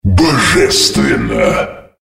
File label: "godlike", female